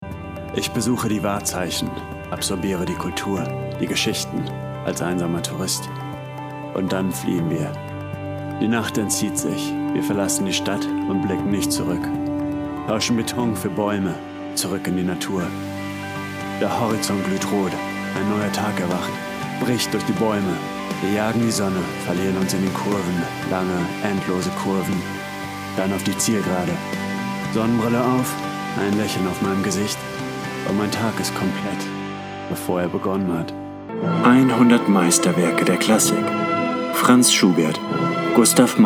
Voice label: German Commercial

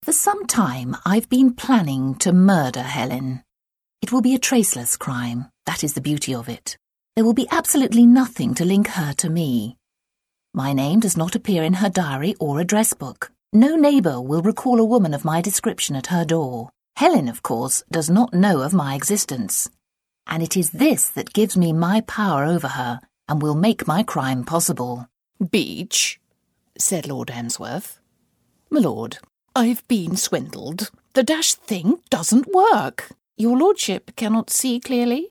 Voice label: Audiobook

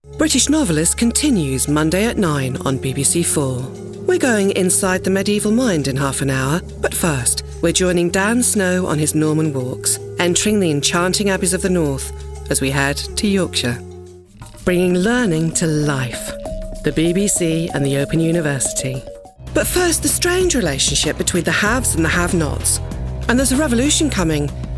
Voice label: continuity

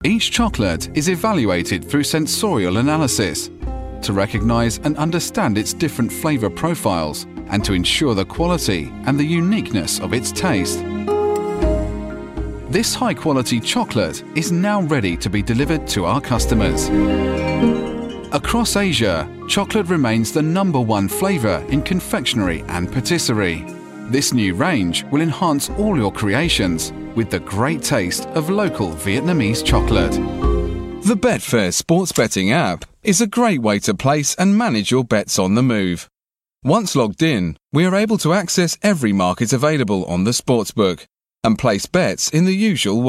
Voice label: Narration

